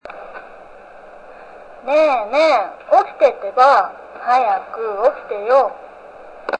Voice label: Voice Clock